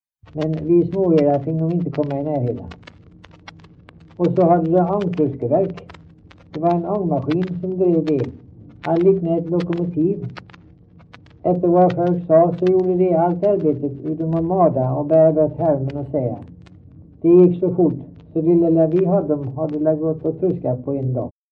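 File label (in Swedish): Stamnared Halland, Svenska sagor, dialekter; Folkminnen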